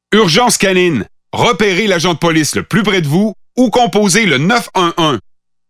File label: Pathfinder French Standard Canadian